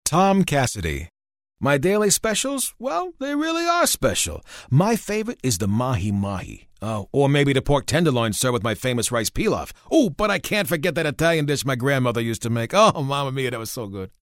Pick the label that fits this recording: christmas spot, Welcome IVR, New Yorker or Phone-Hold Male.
New Yorker